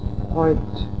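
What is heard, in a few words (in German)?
"freund", German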